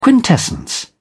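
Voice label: "quintessence", English, uk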